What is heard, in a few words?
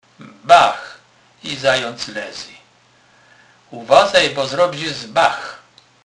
bach, Kurpie; slownik